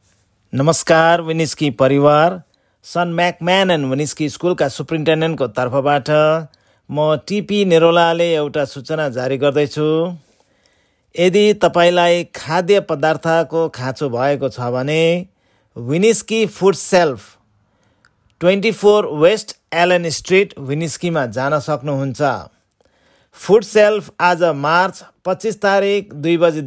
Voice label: nepali; foodshelf